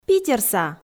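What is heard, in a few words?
"питерса", Komi, female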